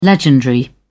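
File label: "legendary", British English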